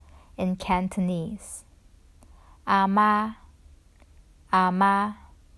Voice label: "Ah Ma 阿姆", Cantonese; The Duke Who Didn’t: Glossary & Pronunciation Guide